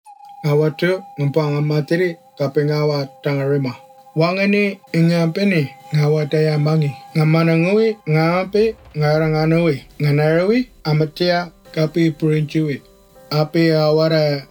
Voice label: Carer Gateway Tiwi; radio

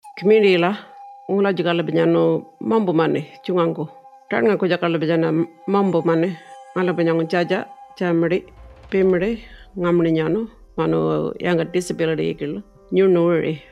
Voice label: Warlpiri, radio; Carer Gateway

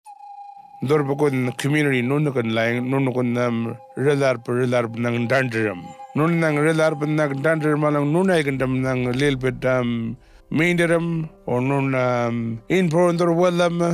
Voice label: Arrernte, radio; Carer Gateway

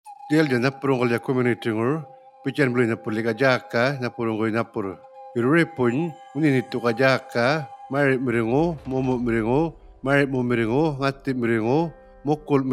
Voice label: Carer Gateway Yolngu Matha; radio

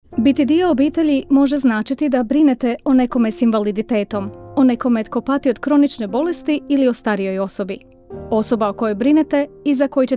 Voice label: Croatian; Carer Gateway

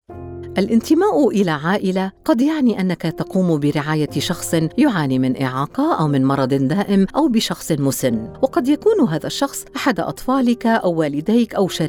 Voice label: Arabic; Carer Gateway

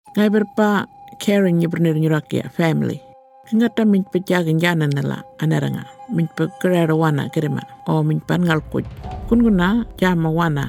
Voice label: Burrara, radio; Carer Gateway

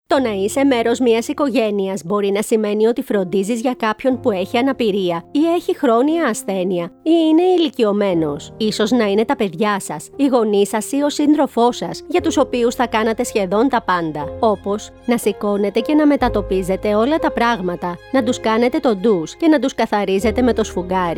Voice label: Greek; Carer Gateway

